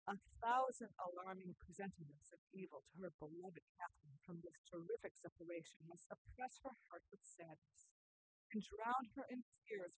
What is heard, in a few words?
female inst; SiSEC08; SiSEC underdetermined